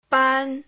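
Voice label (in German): Phoneme, chinesisch; ban 1; Silben; Chinesischen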